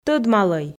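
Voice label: "тӧдмалӧй", Komi, female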